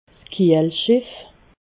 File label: "kiehlschiff", alsace